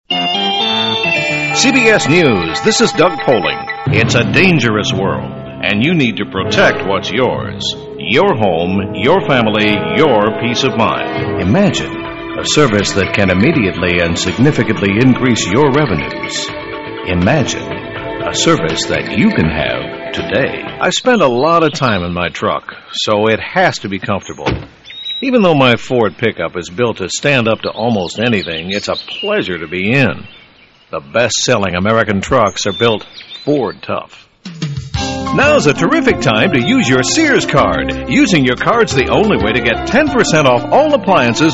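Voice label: male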